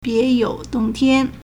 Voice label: "bie2you3dong4tian1", Chinese